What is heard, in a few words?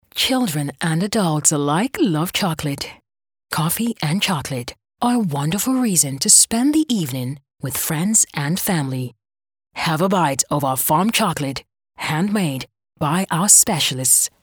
ENG female Sweet